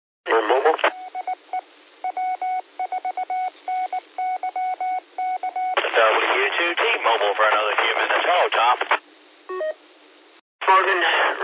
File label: qso rec simplex logic